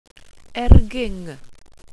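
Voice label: "ergyng", welsh pronunciations; Wales/welsh names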